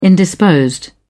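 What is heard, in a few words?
"indisposed", British English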